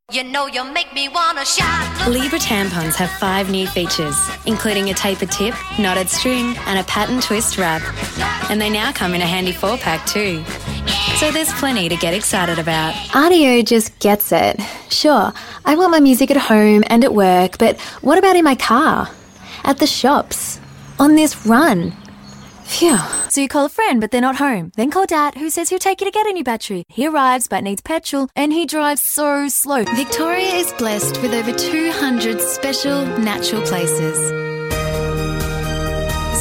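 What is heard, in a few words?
female, Australian English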